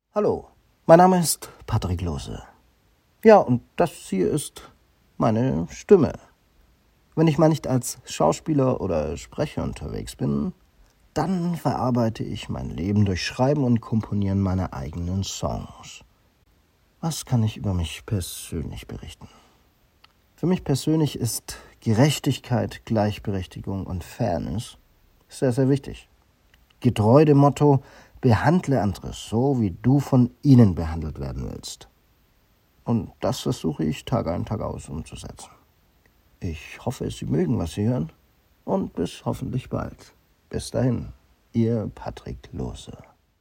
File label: About My Voice deutsch